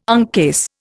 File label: "uncase", Indian